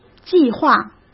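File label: "计划", Mandarin Chinese; chinese-flashcards; hsk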